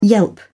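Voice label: "yelp", British English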